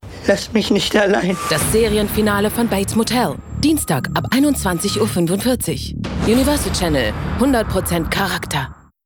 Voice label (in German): Trailer, frauen; Bates Motel